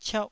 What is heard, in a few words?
"ciauh1", taiwanese